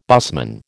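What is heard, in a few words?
"busman", American English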